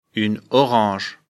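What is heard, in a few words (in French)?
"orange", French; afrikalan; lecture fr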